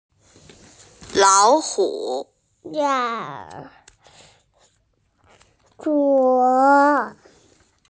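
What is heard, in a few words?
"lao hu", Mandarin Chinese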